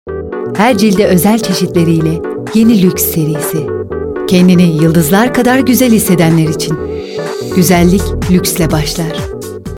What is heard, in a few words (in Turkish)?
reklam; lux